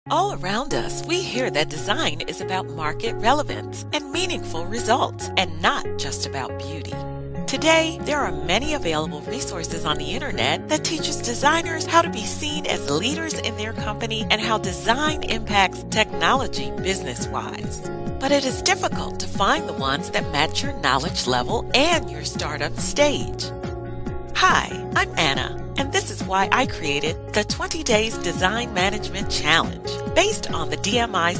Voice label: USA